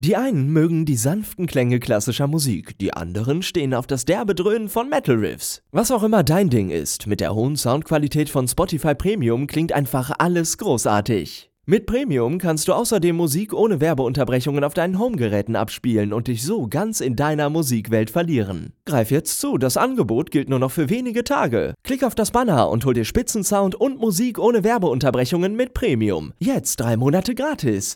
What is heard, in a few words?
ad; spotify